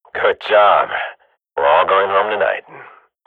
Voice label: radio bot end clean, swat; Counter Strike Model Pack; CS:GO